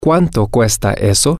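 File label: how much is X, Spanish, male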